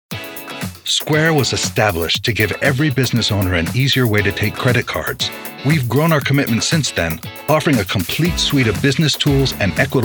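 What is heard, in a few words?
corporate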